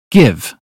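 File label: "give", British English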